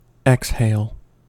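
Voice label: "exhale", American English; pronunciations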